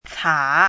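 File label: "cǎ", Chinese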